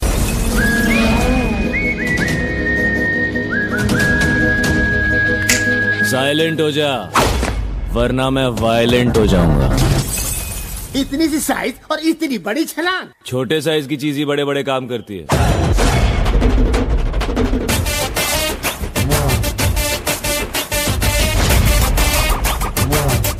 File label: dialogue ringtone; silent hoja